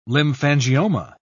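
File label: "lymphangioma", English, British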